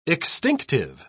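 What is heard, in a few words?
"extinctive", British English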